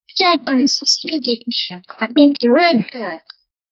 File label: whisper